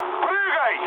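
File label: Russian bailout mood med, speech; War Thunder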